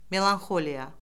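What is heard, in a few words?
"melanxoliya", Azerbaijani; Orfoqrafiya Lüğəti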